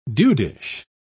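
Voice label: "dudish", British English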